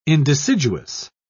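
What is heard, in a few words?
"indeciduous", British English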